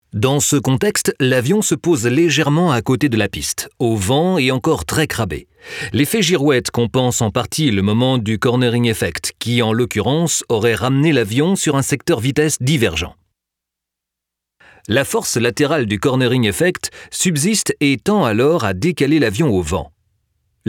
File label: E-LEARNING; CIE AERIENNE